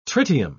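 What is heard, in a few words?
"tritium", British English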